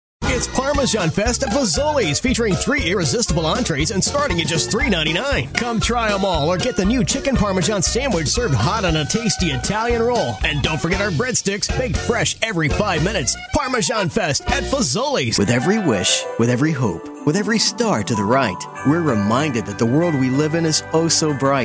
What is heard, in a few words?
Commercial Male